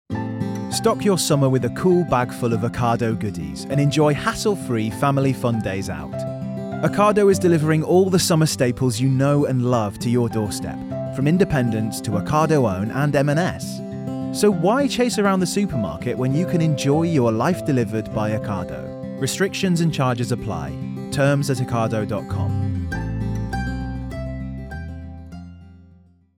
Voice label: male english commercial, english northern accents; ocado

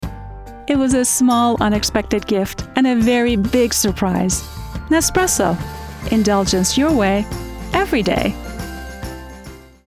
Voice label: female transatlantic accent commercial; nespresso

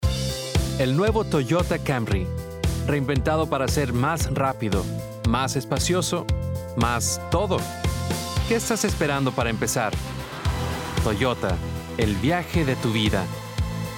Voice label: male spanish mexican commercial; toyota

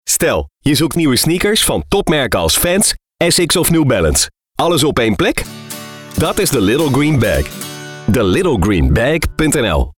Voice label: male dutch commercial

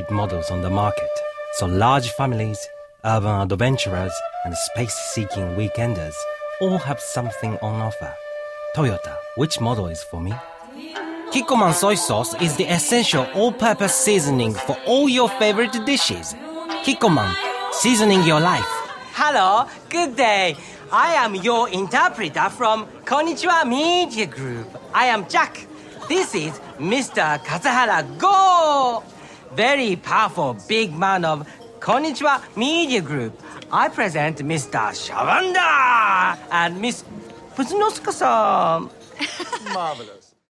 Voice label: male, English with Japanese accent

